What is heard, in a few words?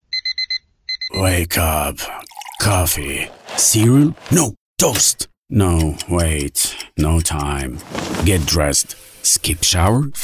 English commercial